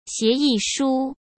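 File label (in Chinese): "协议书", Mandarin Chinese; hsk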